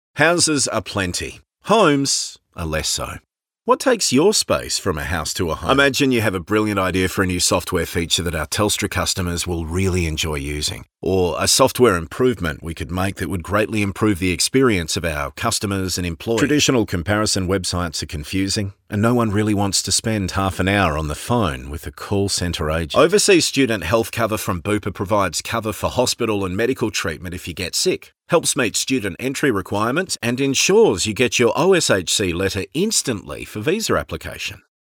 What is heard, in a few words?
Natural